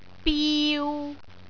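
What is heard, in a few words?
"biu3", Cantonese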